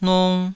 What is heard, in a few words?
"nung2", taiwanese